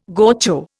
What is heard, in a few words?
"gaucho", Indian